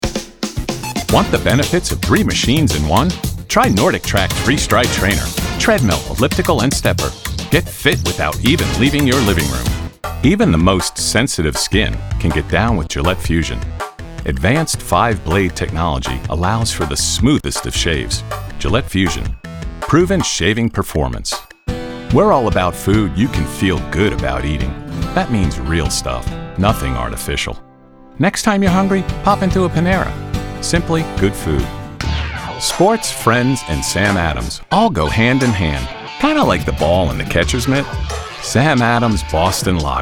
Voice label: Commercial